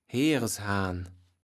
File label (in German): "Herreshaan", Platt